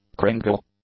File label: "cringle", English; nouns